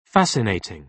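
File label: "fascinating", British English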